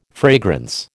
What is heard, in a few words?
"fragrance", American